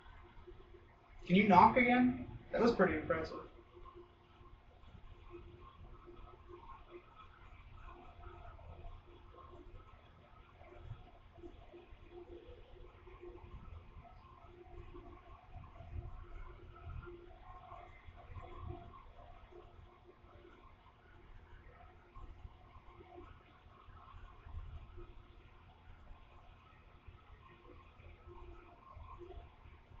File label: Laundry EVP